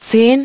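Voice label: "ten", English; iOS 4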